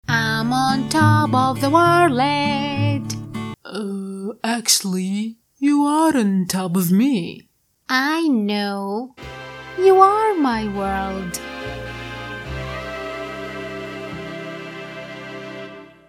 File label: 2 animals, Voice Acting